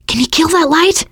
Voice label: kill that light, teen girl; player; survivor; voice